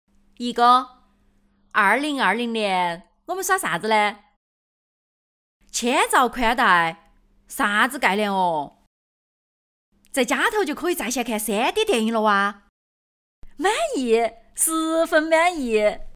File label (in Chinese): 女 方言 川话; 中国电信千兆光带